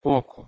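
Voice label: "h3okw", Proto-Indo-European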